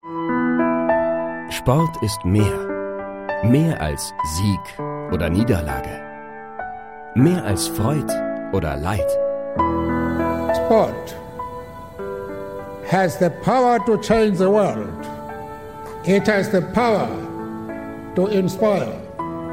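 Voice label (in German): Kommentar, Männer; Laureus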